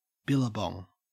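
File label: "billabong", Australian English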